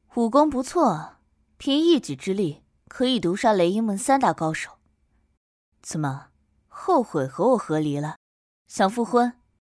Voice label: 女配女将军音色

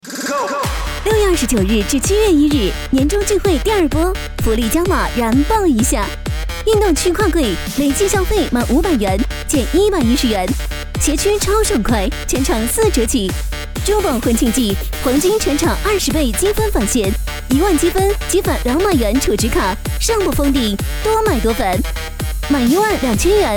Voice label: 促销商场

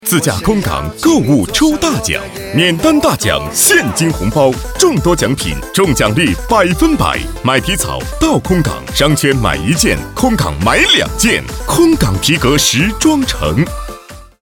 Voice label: 皮革城激情广告

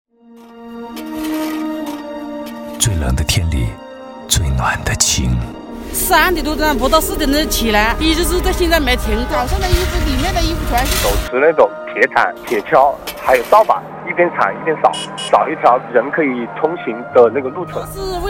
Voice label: 电台片头; 金鹰955电台; 最美英雄

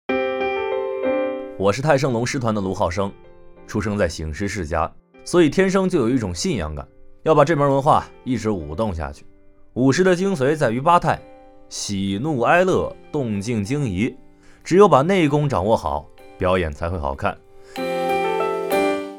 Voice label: 小熊独白素人舞狮

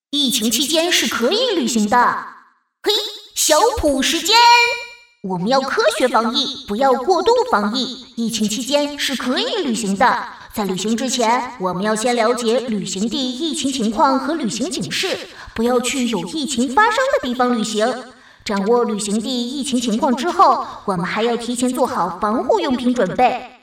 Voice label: 机器人男童

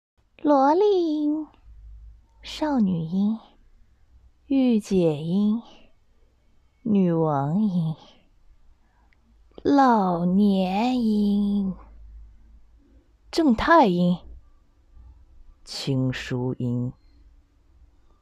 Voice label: 音色变化

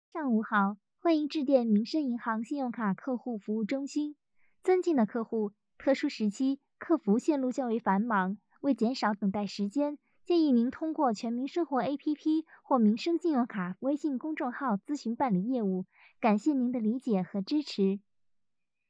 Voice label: 女生客服

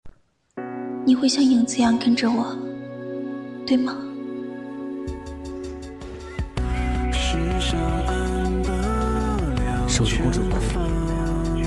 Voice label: 清平乐女生部分, 少御音, 普通话

